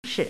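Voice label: "shi5" Mandarin Chinese, neutral tone; chinese-voice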